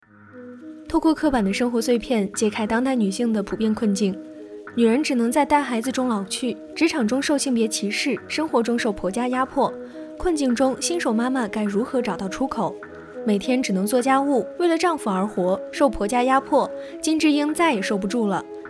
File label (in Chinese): 电影解说